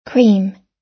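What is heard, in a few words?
"cream", American English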